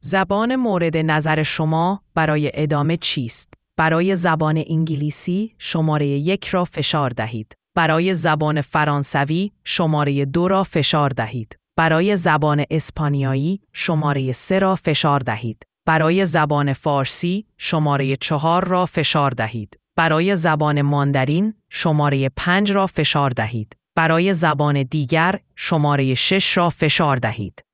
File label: question persian